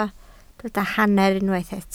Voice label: "hanner", Welsh